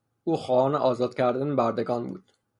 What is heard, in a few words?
persian audio